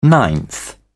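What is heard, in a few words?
"ninth", British English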